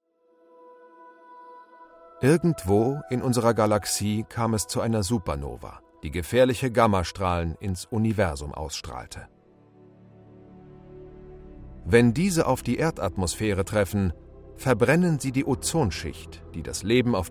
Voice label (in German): Dokumentation